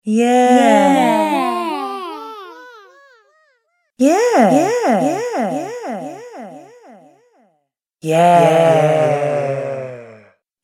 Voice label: "Yeah" With Delay Female Voice Collection; Recorded Voice Sound Effects

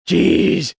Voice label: "Geez", Male; Exclamation

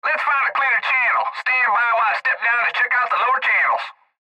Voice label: Cb Trucker Radio; Cb Radio Male Lets Find A Cleaner Channel Standby While I Check Out The Lower Channels; Radio Voice; Blastwave

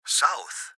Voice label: Gps Voice, Male

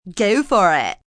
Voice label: Go For It Valley, female, valley girl accent; Talking Sound Effects

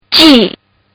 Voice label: Kid Says Gee; Talking; Voice; Partners In Rhyme

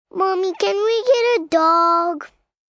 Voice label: Whine Get A Dog, 1 girl, 5 yrs; Whining; VoiceBox

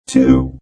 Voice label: Robot Voice "0"

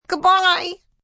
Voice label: "Goodbye" Whine, Goodbyes, female; VoiceBox

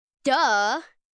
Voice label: "Duh", Attitude, 1 child, 9 yrs; VoiceBox

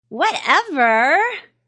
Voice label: Young Lady Says Whatever; Talking Sound Effects

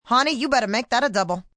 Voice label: Make That Double, Restaurant, bar, female; Talking Sound Effects

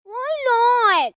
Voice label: Why Not Whine, child, boy, whining; Kids; VoiceBox

